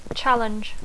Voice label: "challenge", English

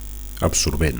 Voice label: "absorbent", Catalan

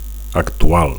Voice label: "actual", Catalan; current; up-to-date; present-day

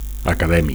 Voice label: "academic", Catalan

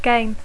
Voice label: "game", English

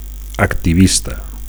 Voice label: "activista", Catalan; (m / f) activist

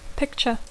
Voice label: "picture", English; dibuix

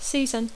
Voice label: "season", English

